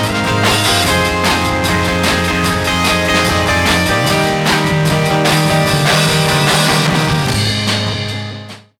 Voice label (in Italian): spot radio